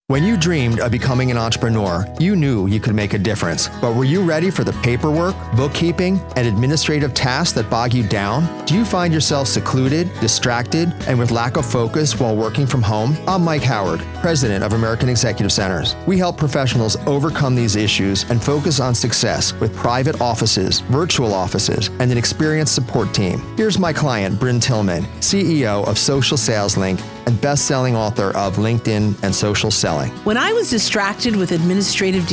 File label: radio; American Exec